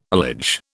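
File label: "allege", British English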